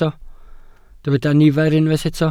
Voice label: "nifer", Welsh